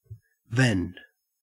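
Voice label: "vend", Australian English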